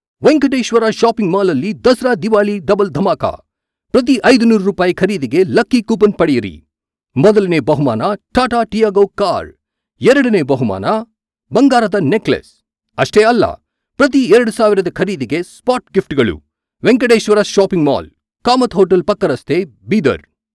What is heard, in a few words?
Kannada Ad